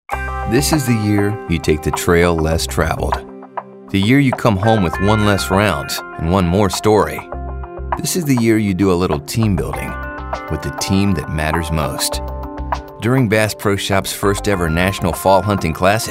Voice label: soft spoken